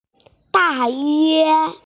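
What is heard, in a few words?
"dayue", Mandarin Chinese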